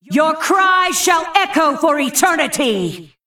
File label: Female Old God Creature Play